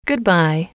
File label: good bye, English